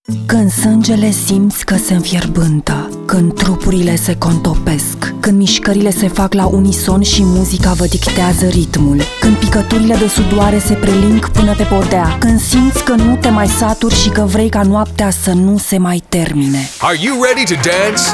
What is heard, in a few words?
female, Romanian